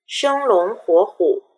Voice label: "shenglonghuohu", Chinese